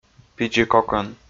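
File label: "пидзикокӧн", Komi, male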